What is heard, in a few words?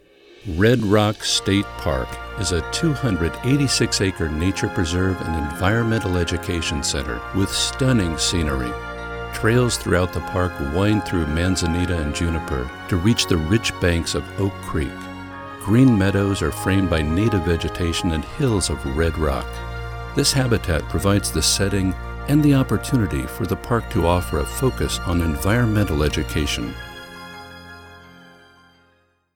eLearning; Red Rock